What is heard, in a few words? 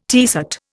"tiercet", Indian